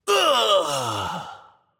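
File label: Death, German; VO; bloom minigame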